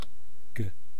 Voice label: "ka", East Cree syllabics